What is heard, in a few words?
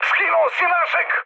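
Serbian player killed ally mood high, speech; War Thunder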